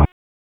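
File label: Raleigh Police North District Tactical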